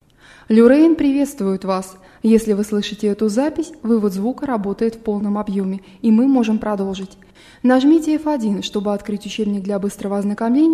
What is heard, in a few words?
Russian, greeting